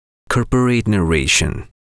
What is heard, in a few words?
Spanish Corporate Narration